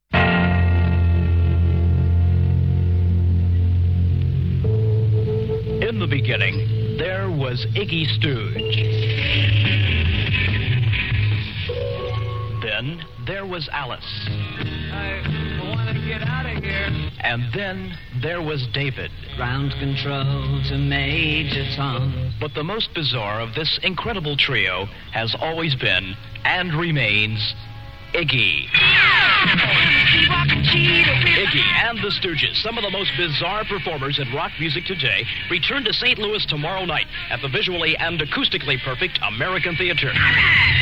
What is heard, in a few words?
radio ad